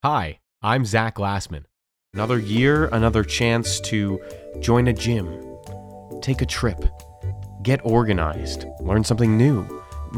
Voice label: Commercial VO Reel